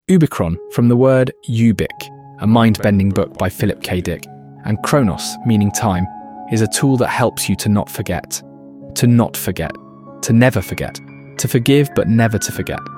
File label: music voice intro